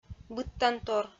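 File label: "быдтантор", Komi, female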